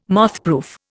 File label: "mothproof", Indian